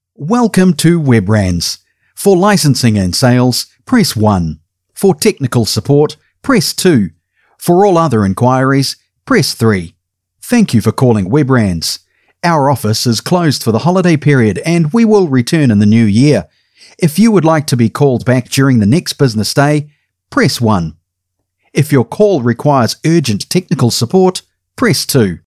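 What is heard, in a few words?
New Zealand Phone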